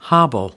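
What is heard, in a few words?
"hobble", American English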